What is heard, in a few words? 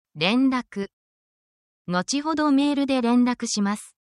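Japanese word, japanese phrases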